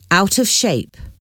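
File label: "out of shape", English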